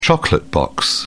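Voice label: "chocolate box", British English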